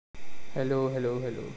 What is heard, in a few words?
voice message